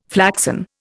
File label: "flaxen", Indian